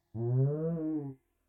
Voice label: Dialogue speech; Hylics

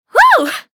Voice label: Female Fight Appear; Mighty Party; Android

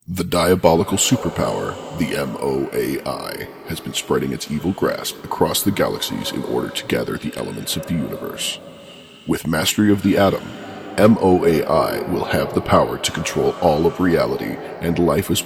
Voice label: Story Male; Proton Pulse; MOAI

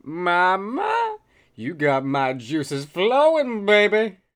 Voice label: Male Beggings

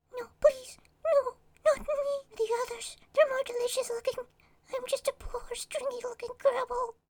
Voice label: Voices Female Beggings; a dump in the dark

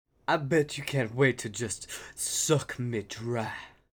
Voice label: Male Beggings

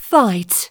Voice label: "Fight", voice

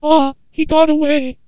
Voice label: Antenna Voice

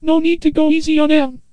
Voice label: Antenna Voice

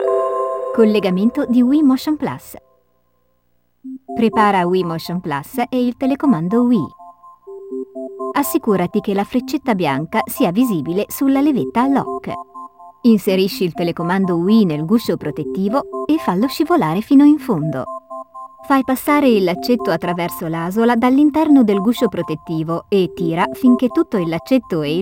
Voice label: Connecting Motion Plus Italian; the legend of zelda skyward sword wii gamerip 2011

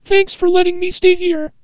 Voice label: Field Town Child

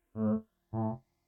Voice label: Dialogue speech; Hylics

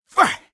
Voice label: Voices Vo Male Fight Attack; Mighty Party; Android

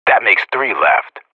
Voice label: Professional Radio bot kill count

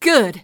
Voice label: "Good", voice

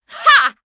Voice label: Voices Vo Female Fight Attack; Mighty Party; Android

